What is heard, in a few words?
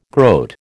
"groat", American